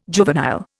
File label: "juvenile", Indian